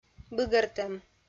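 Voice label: "быгӧртӧм", Komi-Zyrian, female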